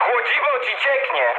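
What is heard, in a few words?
damaged water, mood med, Polish speech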